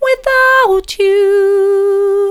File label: without you soft; vocal